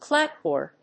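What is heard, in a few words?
"clapboard", English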